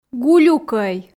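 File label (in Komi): "гулюкӧй", Komi, female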